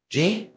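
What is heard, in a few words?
Voice Acting Spanish, male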